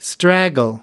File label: "straggle", us